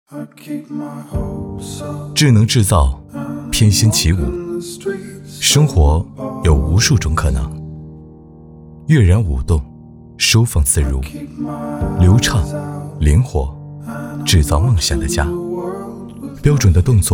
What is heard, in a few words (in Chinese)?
男 旁白; 生活有无数种可能